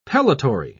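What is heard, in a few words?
"pellitory", English, British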